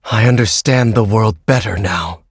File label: levelup, en; Cookie Run